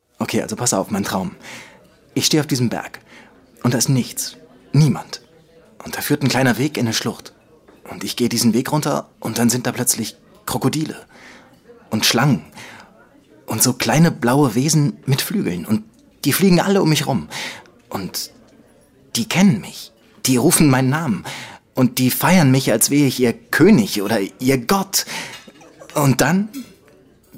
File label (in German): Aufgeregt